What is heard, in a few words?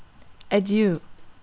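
"adieu", Russian, female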